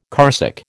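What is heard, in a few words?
"carsick", American English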